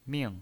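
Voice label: "ming", pinyin voice, Mandarin Chinese, fourth tone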